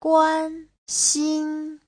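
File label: "guānxīn", Mandarin Chinese; 关心; Giáo trình chuẩn HSK3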